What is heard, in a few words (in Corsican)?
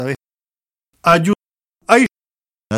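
aghju ai hà, nord et sud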